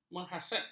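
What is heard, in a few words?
"menghasratkan", Malay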